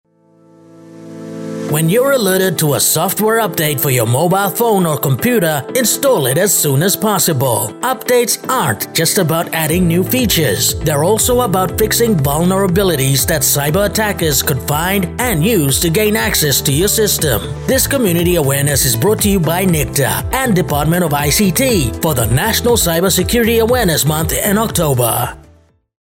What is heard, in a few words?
Generic Cyber Security Month English; NBC Radio 90.7 NICTA